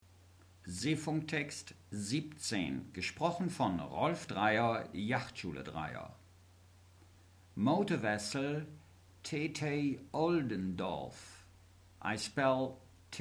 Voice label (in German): Seefunktext